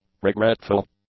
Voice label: "regretful", English; adjectives